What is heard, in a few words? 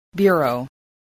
"bureau", spelling